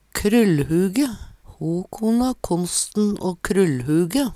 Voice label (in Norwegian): krullhuge, Numedalsmål